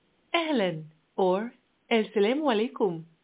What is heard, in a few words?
Arabic (Egyptian), hello